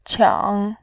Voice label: "qiang3", voice

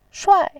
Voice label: "shuai4", voice

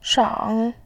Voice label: "shang3", voice

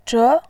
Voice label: "zhe2", voice

